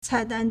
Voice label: "cai4dan1", Chinese